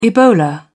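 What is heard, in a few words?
"ebola", British English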